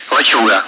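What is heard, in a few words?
Speech, Russian, air traffic control; Callsign; Lachuga; DCS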